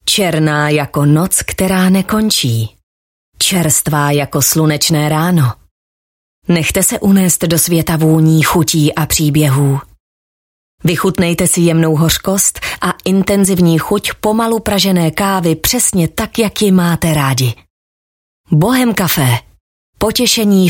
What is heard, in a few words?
Czech Voice Sample